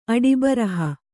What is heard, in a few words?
"ಅಡಿಬರಹ", Kannada